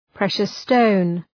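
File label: "precious stone", English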